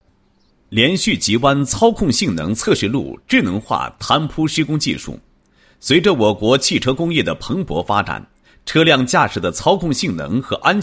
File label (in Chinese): 男27-工程技术, 解说词; 连续急弯操控性能测试路